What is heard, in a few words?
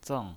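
"zeng" Mandarin Chinese pinyin voice, fourth tone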